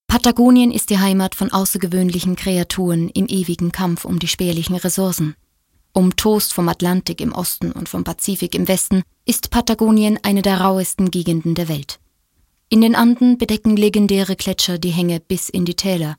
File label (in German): Doku